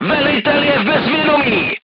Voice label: voice message commander contused, crew tanks czech